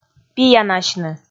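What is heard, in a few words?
"пиянасьны", Komi, female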